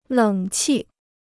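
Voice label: "冷气", Chinese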